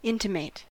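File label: "intimate", US English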